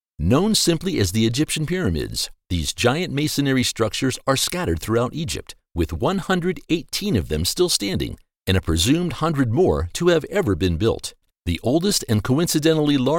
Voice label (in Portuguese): Ingles; locutores nativos